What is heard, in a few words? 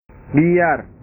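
"biar", Indonesian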